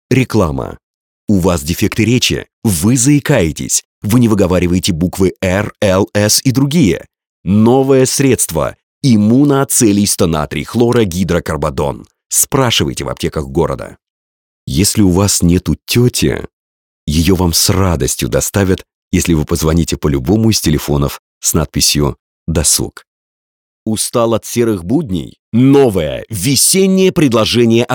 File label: russian male